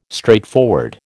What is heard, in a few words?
"straightforward", American